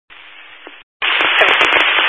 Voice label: Highway Patrol, Phoenix Metro East Area, scanner